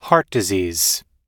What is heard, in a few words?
heart disease, US English